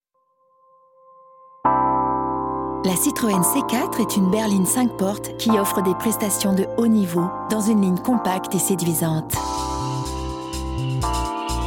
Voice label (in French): solaire calme, voix off comédienne; Citroën C4